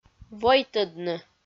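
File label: "войтӧдны", Komi, female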